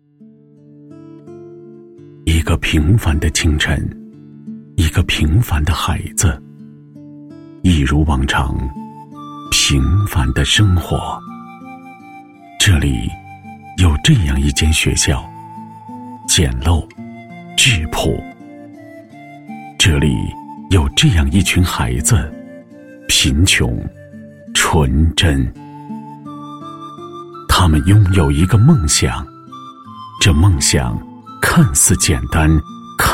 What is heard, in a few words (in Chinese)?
男 专题 舒缓; 央视大气专题; 孩子的梦